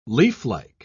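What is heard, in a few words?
"leaflike", English, British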